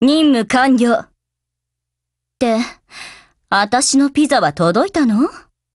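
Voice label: OPERATION OVER Japanese, Voice; GF Alarm; FP6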